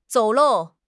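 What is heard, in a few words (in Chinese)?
"走漏", Chinese; HSK6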